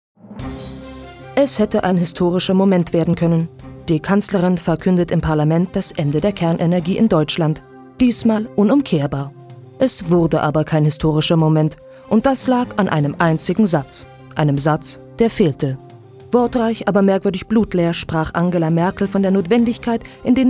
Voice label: Kommentar

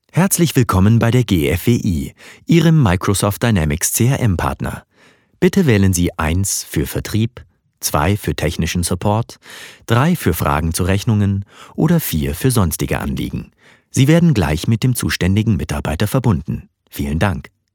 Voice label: Telefonansage

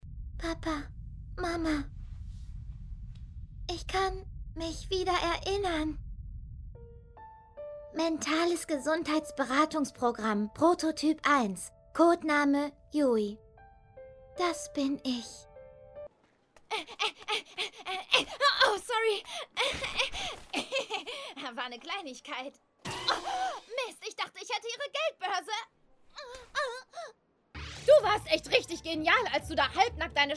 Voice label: Anime gemischt

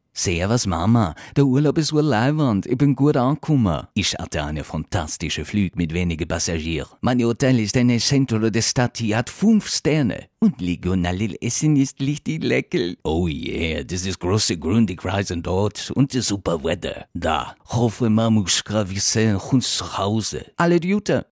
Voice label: Akzente

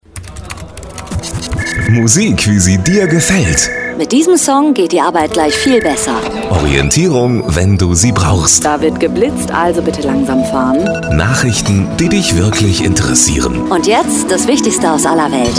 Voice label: Radiowerbung; RADIOPLAYER

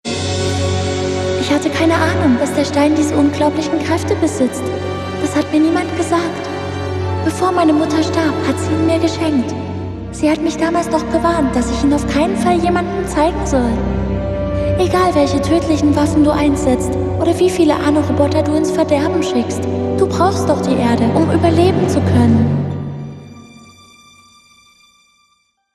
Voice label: Synchro Smooth

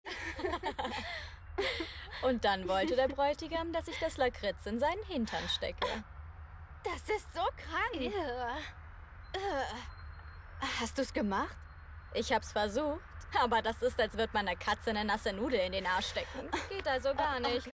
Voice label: Synchron junge Erwachsene belustigt

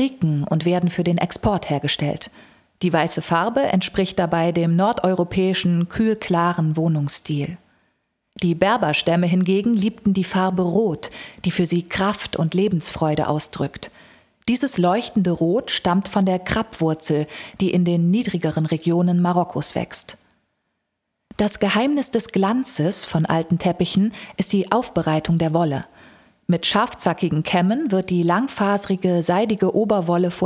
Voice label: Reportage Berberteppiche

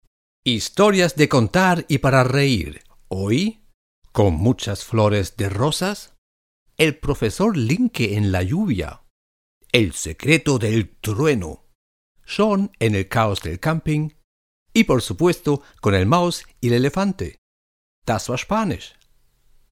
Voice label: Spanische Off Stimme; Sendung mit der Maus

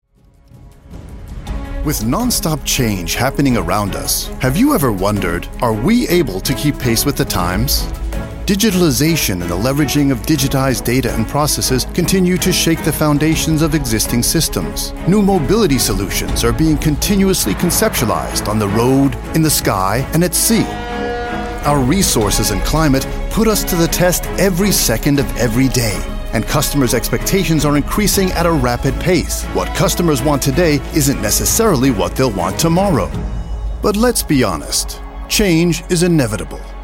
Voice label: US Englisch Willkommensvideo Messe

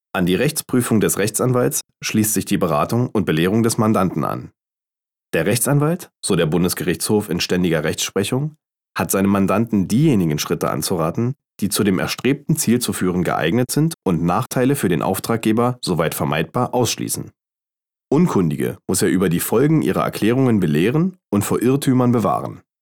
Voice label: E-Learning; Anwaltsrecht

